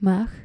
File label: "maag", Dutch